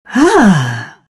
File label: happy; Phantom Assassin